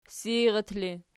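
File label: seirat ergative, Archi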